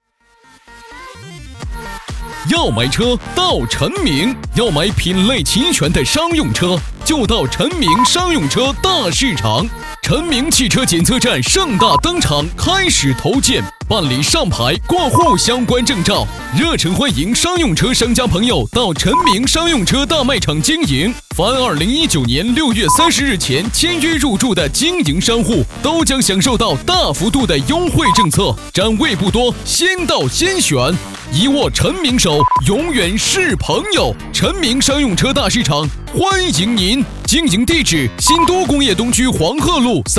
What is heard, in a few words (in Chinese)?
广告 招商广告词; 4号地招商广告词